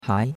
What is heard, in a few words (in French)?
"hai2", chinois; Cours-de-Chinois